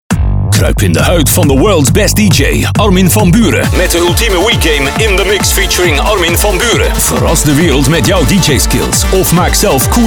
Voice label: wii commercial